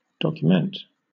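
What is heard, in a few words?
"document", British English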